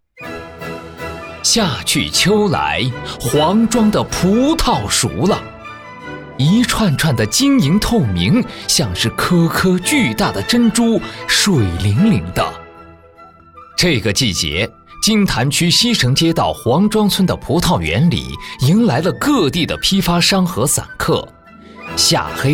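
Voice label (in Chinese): 专题丰收喜悦热情; 黄庄珍珠誉四方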